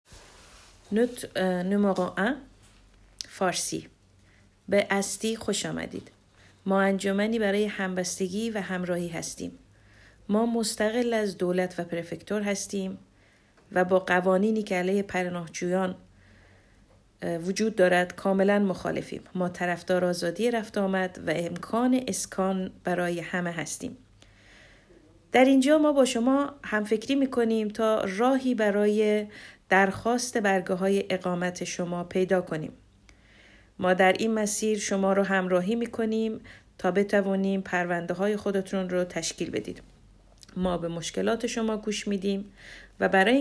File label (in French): farsi bienvenue permanences; Accueil farsi